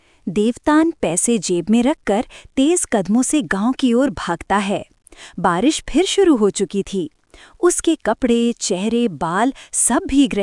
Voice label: Female